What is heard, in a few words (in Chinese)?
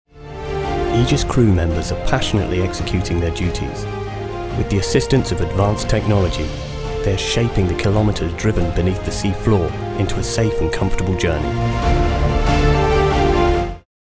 低沉浑厚大片旁白